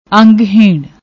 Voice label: "aungheen", Punjabi

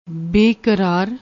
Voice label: "bekaraar", Punjabi

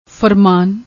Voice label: "farmaan", Punjabi

